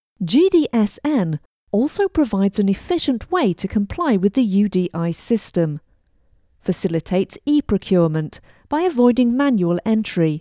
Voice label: English UK, VO